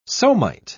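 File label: "somite", British English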